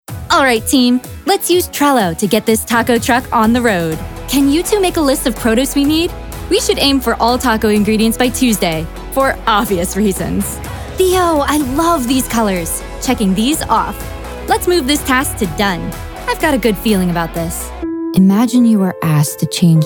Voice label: Corporate Narration